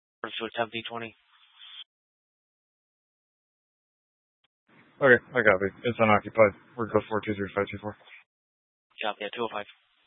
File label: Mesa PD Dispatch